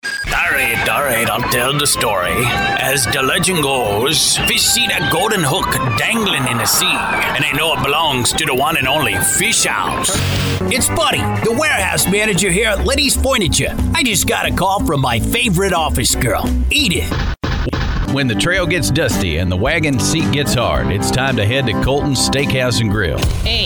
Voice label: CHARACTER

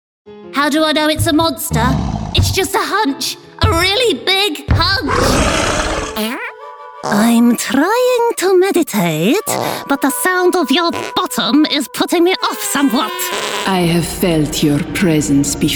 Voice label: animation

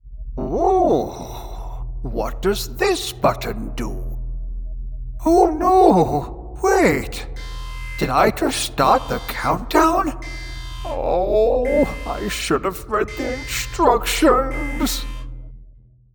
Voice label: Silly Alien

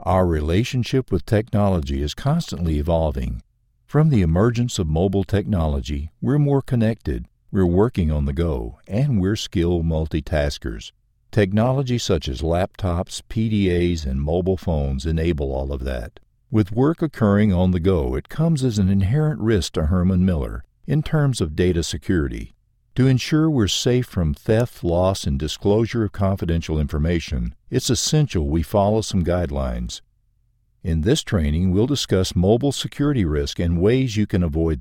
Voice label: E-learning

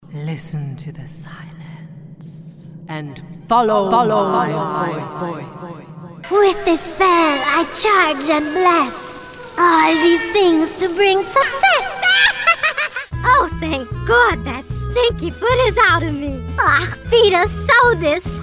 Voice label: Animation Demo; 60Sec

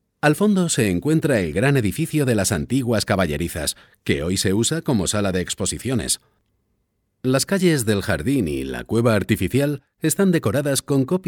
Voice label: Spanish Castilian tour guide

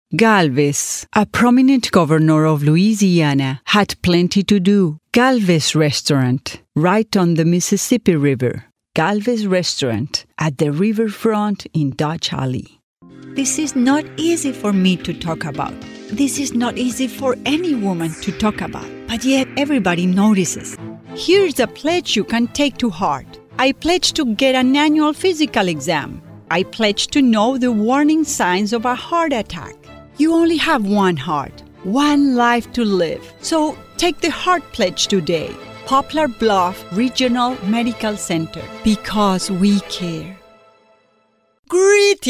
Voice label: English-Spanish accent collage

